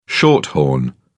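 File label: "shorthorn", British English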